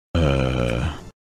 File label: uh deep